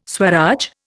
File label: "Swaraj", Indian